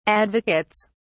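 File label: "advocates", British English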